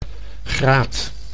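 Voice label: "graat", Dutch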